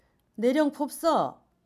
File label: discount please, jeju